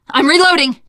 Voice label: reloading, teen girl; voice; source engine; left 4 dead 2; survivor

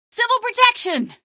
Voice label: civil protection, female; Source Engine; video game; npc

